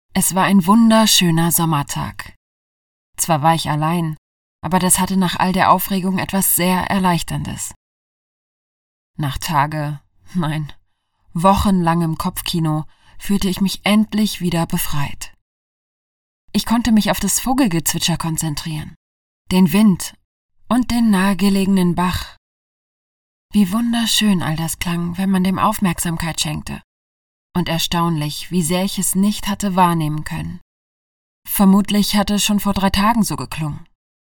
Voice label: AUDIOBOOK; Sommertag